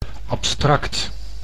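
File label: "abstract", Dutch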